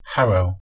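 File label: "harrow", English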